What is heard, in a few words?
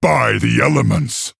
male orc, Wow